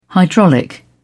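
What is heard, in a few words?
"hydraulic", British English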